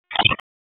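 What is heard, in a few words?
Police Dispatch; Wake Forest; p25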